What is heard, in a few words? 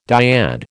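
"dyad", American English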